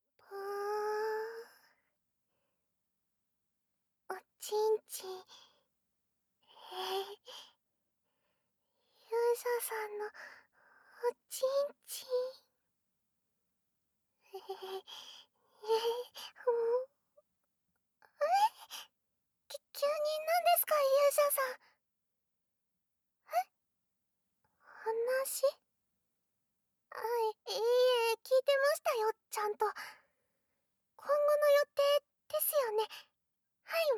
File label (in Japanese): 耳元囁きえっち誘惑